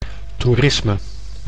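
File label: "toerisme", Dutch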